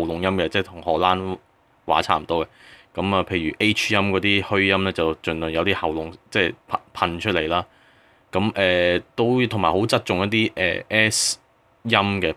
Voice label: German Consonants in lyrics